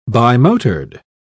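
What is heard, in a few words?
"bimotored", English, uk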